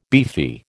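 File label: "beefy", American English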